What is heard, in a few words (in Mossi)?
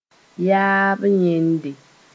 "yaab-yẽnde", moore; wisdom tooth